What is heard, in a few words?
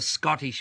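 "Scottish", Australian English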